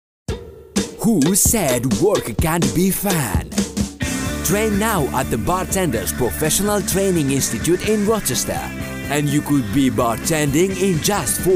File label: Multi Demo Reel